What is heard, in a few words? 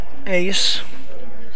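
"ace", American, male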